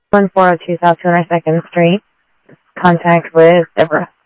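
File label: Sheriff East County, scanner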